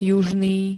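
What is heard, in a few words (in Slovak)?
"južný", slovenské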